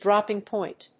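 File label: "dropping point", English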